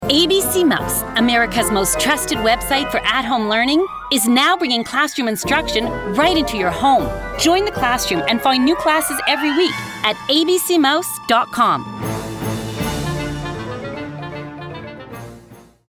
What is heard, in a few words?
commercial